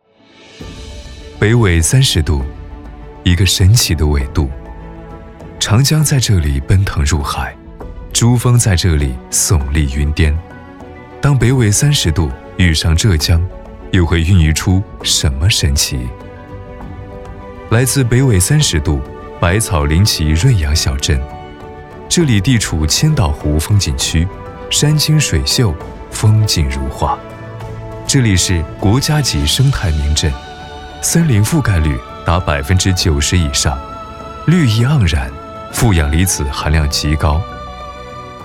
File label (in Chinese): 男 专题 大气 品质; 百草临岐润养小镇